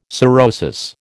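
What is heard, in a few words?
"sorosis", American English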